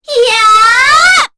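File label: Vox Casting, Korean; Kara; kingsraid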